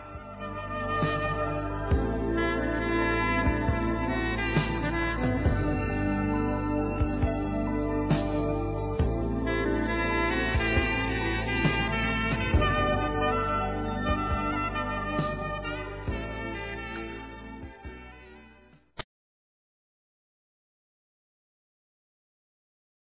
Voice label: ANO NOVO P AMIGO(A), masc; Telemensagens; Natal e Ano novo

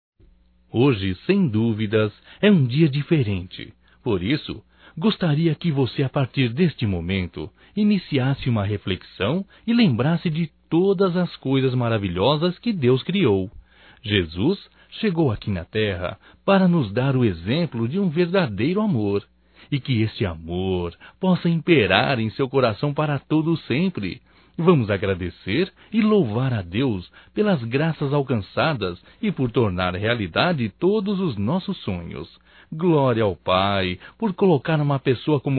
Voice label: Aniversário Irmã Evangélico Masculino; Aniversarios; Telemensagens 2017

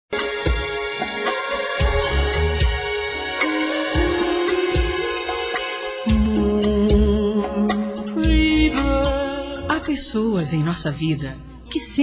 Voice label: aniv. sogro f; Aniversários; Aniversários diversos; fem

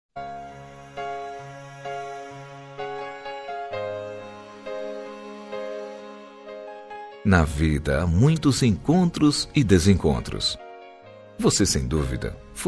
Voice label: ROM MASC, Telemensagens; BONS MOMENTOS JUNTOS; Telemensagens 2017; Românticas

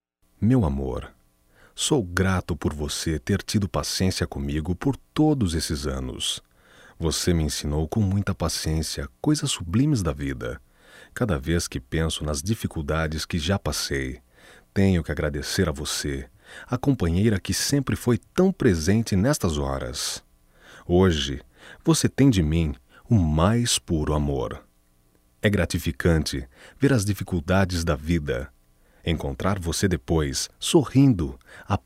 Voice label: Agradecimento Masculino; Telemensagens; Só Voz; MOMENTOS DE AMOR

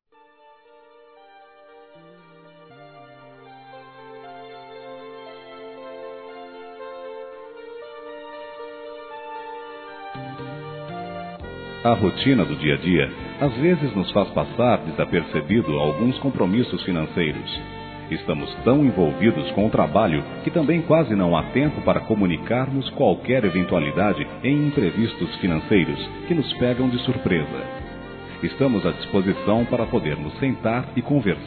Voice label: COBRANÇA COMERCIAL M, Telemensagens; Empresarial; masc; Telemensagens 2017

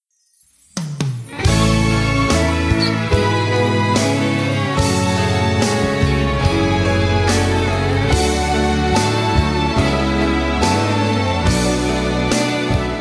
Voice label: TIA FEM; Aniversários

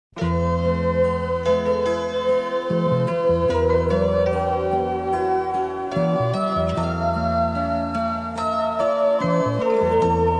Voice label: ROM POESIA MASC

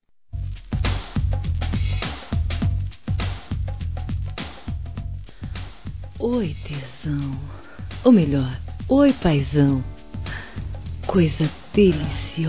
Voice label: Dia Dos Pais ( Erótica Para Marido ) F; Telemensagens; Sensuais; fem

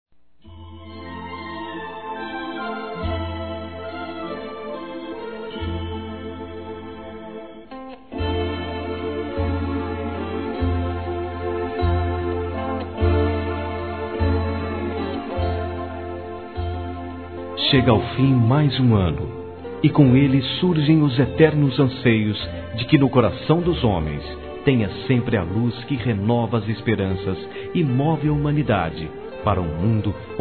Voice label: NEUTRO M, Ano novo; Telemensagens; Natal e Ano novo; masc

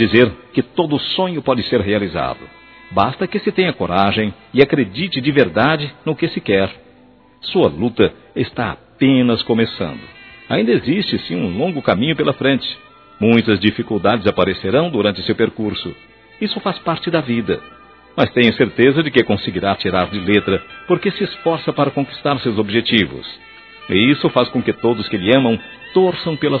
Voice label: Formatura 1º Grau Masculino, Telemensagens; Telemensagens 2017; Formaturas

